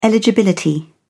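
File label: "eligibility", British English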